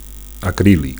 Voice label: "acrilic", Catalan; acrylic